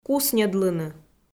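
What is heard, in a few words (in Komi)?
"кусньӧдлыны", Komi-Zyrian, female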